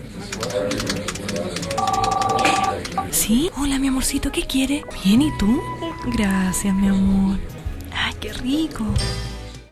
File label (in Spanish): espanol personajes